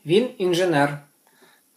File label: dialogue, Ukrainian